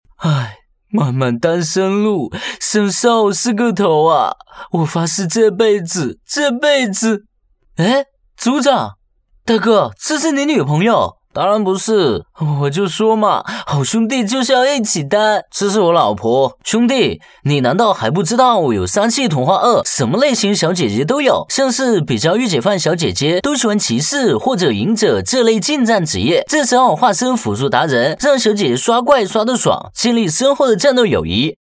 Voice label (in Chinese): 男 角色配音 台湾腔; 愛的邂逅; 声音百变; 港台腔